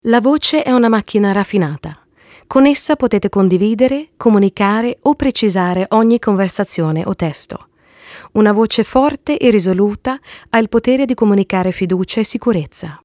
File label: Italian